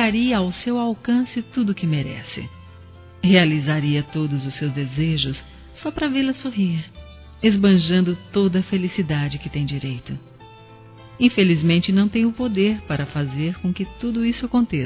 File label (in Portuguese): Aniversário Tia Feminino